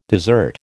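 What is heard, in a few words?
"dessert", American English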